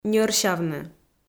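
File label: "ньӧрсявны", Komi, female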